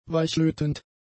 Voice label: "weichlötend", German; adjectives